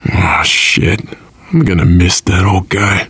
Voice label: grief, survivor voice, biker; Left 4 Dead 2; left4dead2 dlc2